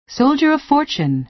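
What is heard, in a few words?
"soldier of fortune", American English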